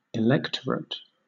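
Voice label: "electorate", British English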